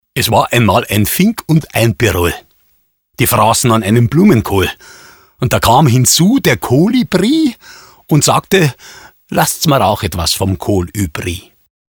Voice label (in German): Bayerisch, Sprecher; LOFT VOICES